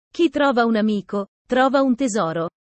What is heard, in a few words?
italian sayings about life